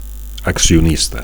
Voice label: "accionista", Catalan; (m / f) shareholder; stockholder

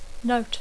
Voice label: "note", English

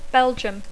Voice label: "Belgium", English; Bèlgica

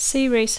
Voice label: "series", English

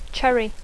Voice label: "cherry", English; cirera

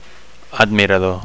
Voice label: "admirador", Catalan

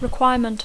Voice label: "requirement", English

